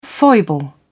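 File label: "foible", British English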